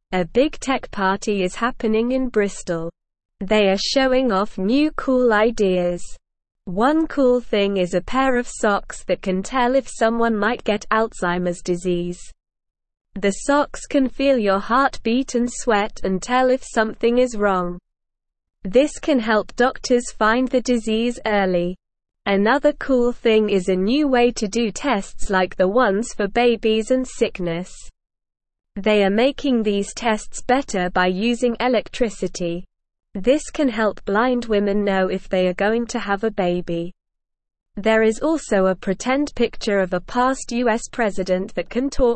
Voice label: English Beginner SLOW Reading; Exciting Tech Party in Bristol Cool Ideas Revealed